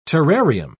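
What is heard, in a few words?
"terrarium", English, British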